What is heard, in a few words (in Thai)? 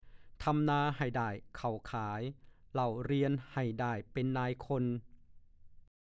"ทำนาให่ได้เข่าขาย เล่าเรียนให่ได้เป็นนายคน", ภาษาโคราช